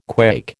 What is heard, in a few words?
"quake", American